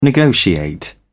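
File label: "negotiate", British English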